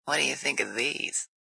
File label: Whaddya Think, Female; Sex Sound Effects